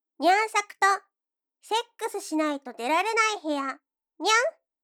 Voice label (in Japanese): タイトルコール; ニャン作 SEなし